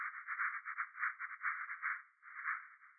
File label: vocode